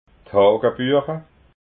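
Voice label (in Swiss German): "hagabuecha", Alsatian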